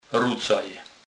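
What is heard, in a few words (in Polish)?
"rucaj", Kurpie dialect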